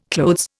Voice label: "clothes", Indian

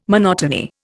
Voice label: "monotony", Indian

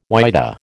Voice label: "whydah", American

